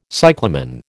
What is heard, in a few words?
"cyclamen", American